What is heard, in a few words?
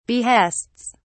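"behests", en, uk British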